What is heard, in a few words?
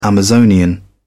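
"amazonian", English, British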